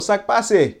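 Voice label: "Sak pase", Haitian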